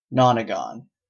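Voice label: "nonagon", Canadian English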